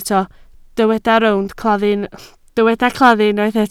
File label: "claddu", Welsh